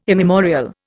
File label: "immemorial", Indian